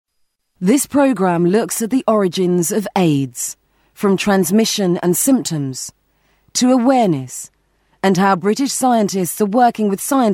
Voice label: Documentary; Aids; Vocal Point

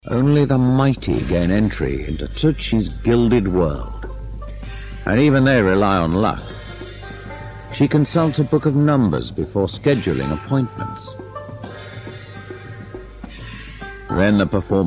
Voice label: Docu Narration; Palaces extract

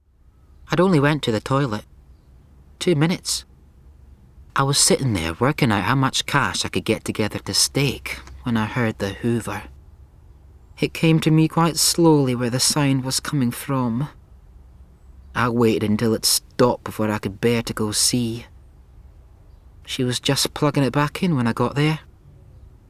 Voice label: Narration Passing Places

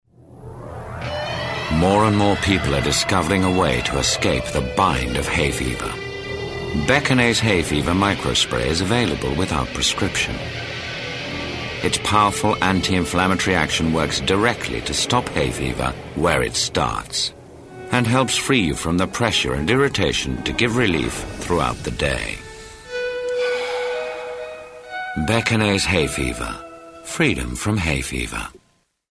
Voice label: Commercial; Beconase; Vocal Point

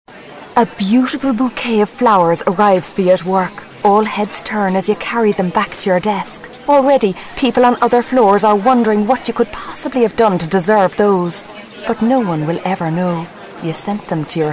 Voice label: Commercial Flowers

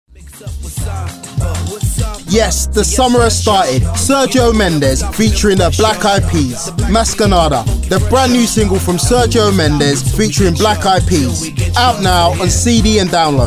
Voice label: Commercial; Vocal Point

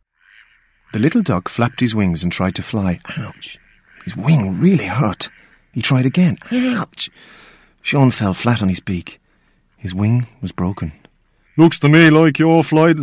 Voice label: Character Narration

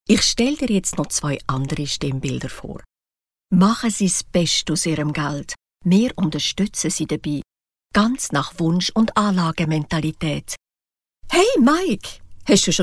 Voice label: Swiss German Corporate

